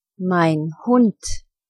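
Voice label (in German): "hund", German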